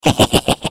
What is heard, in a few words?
Defective Turret defect laugh; sp sabotage factory